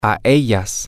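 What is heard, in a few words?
toThemF, Spanish, male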